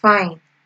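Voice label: "fine", Nigerian Pidgin, female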